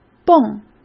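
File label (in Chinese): "蹦", Mandarin Chinese; chinese flashcards; hsk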